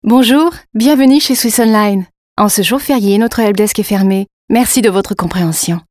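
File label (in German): fr telefon, French; sprecher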